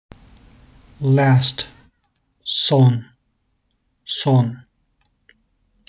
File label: last, Turkish phrasebook; the talking turkish phrasebook